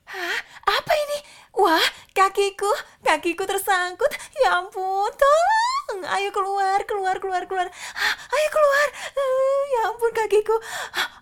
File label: Karakter Indonesia